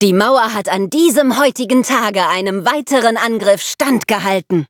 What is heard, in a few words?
victory, de; cookierunwiki